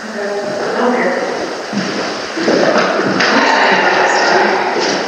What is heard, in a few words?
Male Voice; Turner Room